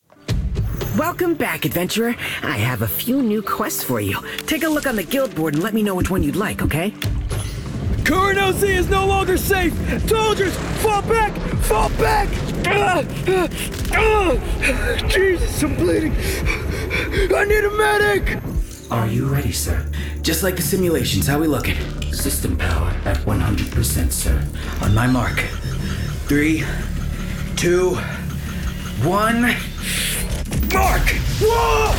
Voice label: men video games

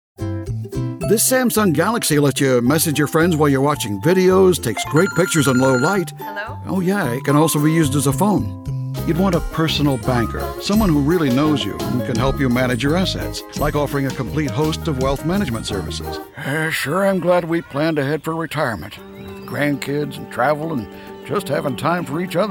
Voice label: men commercial

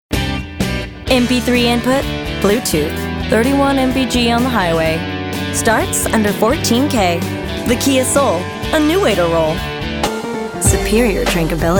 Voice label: women commercial

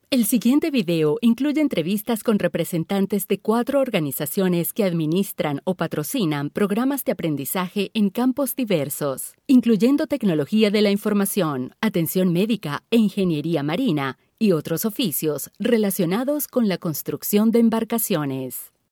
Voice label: spanish elearning

